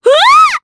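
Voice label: Vox Sur Japanese; Pansirone; kingsraid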